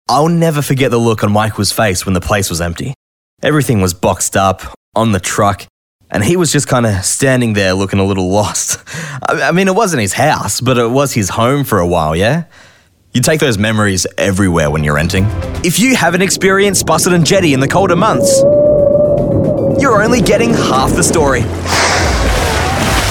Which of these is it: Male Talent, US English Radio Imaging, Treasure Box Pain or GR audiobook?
Male Talent